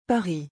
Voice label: "Paris", French

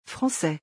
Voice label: "Français", French

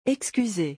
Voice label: "excuser", French

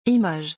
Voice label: "image", French

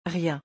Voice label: "rien", French

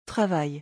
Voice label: "travail", French

